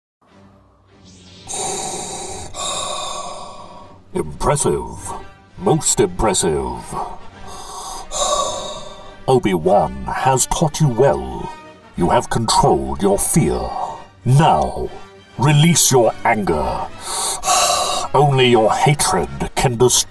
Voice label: with Music; DarthVader